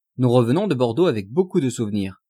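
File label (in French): nous revenons de bordeaux avec beacoup de, French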